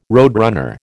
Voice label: "roadrunner", American

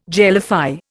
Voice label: "jellify", Indian

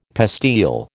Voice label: "pastille", American

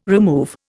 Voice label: "remove", Indian

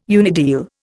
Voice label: "unideal", Indian

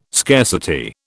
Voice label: "scarcity", British

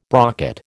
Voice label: "brocket", American

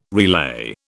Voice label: "relay", British

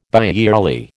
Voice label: "biyearly", American

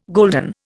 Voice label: "golden", Indian

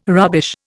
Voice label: "rubbish", Indian

